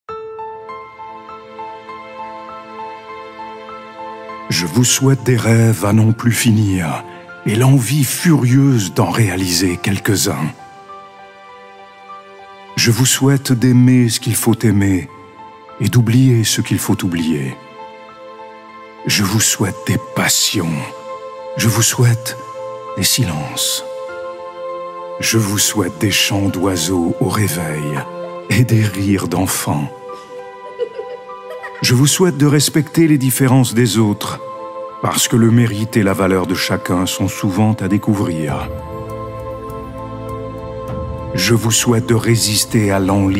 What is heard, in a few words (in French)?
narration; mes souhaits pour 2016 message ecrit par jacques brel